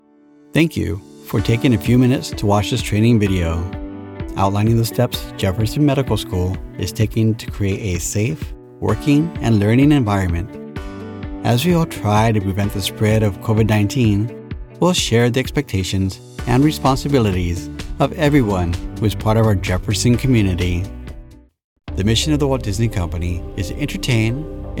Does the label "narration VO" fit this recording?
Yes